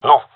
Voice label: negative, male; vo

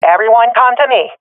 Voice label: request regroup, swat fem; vo; Counter Strike Model Pack; CS:GO

